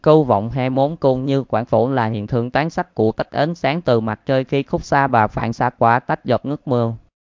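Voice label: speaker, American English; piper-voices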